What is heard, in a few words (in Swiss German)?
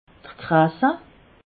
"krasse", alsace